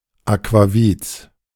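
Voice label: "Aquavits", German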